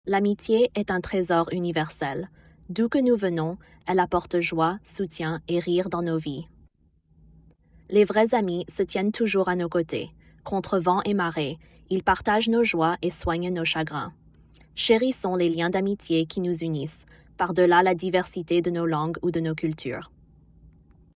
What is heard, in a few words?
french; heygen